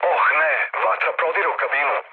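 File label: Serbian on fire mood low, speech; War Thunder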